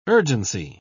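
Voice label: "urgency", British English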